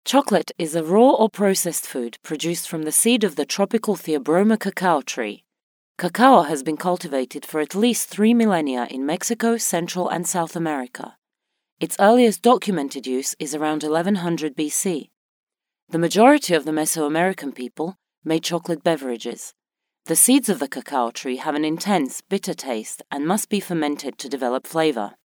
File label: narration, english GB